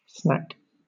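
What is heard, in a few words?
"snack", British English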